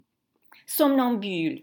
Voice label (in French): "Somnambule", French